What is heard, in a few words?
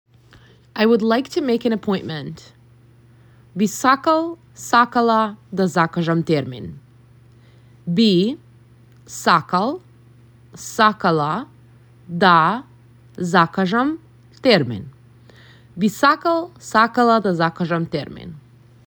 I would like to make an appointment, macedonian; the talking macedonian phrasebook